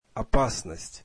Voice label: russian danger